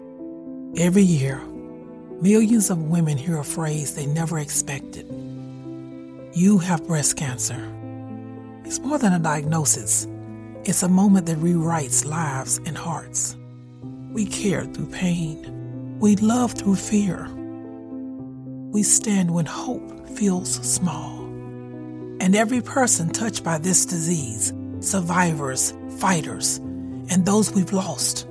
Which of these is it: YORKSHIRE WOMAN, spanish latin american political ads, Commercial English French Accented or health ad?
health ad